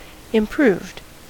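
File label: "improved", US English; pronunciations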